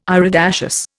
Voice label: "iridaceous", Indian